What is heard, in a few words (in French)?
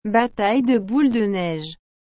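"bataille de boules de neige", French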